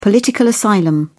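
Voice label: "political asylum", British English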